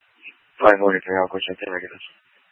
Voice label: Mutual Aid-Intersystem Interop B, scanner